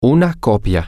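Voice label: Spanish, male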